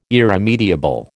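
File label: "irremediable", American English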